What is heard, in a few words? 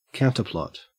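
"counterplot", Australian English; pronunciations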